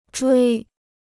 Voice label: "追", Chinese